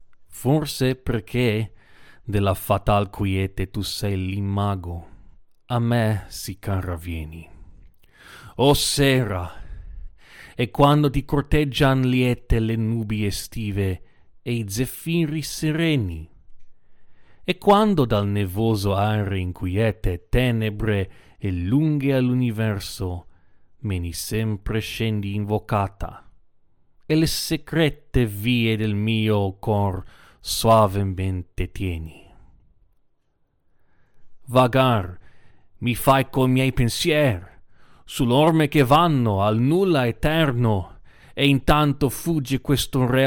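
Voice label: Italian Narrative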